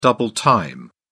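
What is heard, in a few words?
"double time", British English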